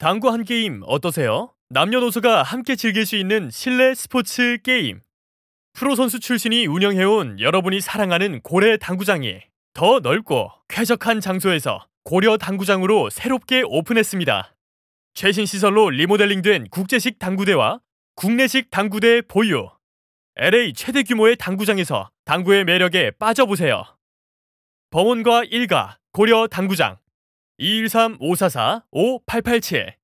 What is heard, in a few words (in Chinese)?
外籍韩语男 年轻热情